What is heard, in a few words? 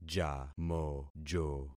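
"jamojo", concatenated syllables; Affixing experiment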